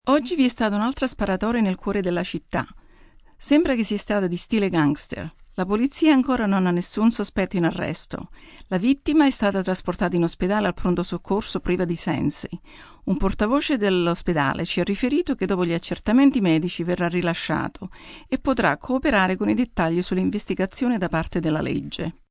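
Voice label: News Drive By, Broadcast, news, female news item in Italian on drive by shooting.; female news item in Italian (1a) on drive by shooting. (human voice speaking); VoiceBox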